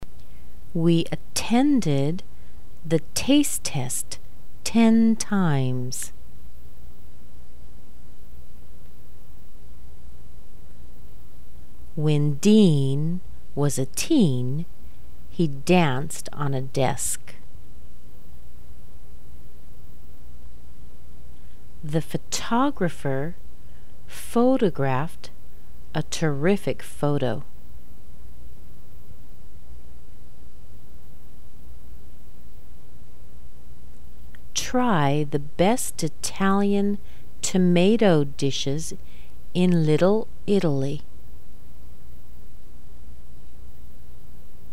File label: TD sentences slow, American accent